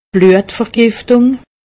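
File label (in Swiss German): "bluetvergiftung", Alsatian; medecine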